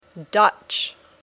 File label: Dutch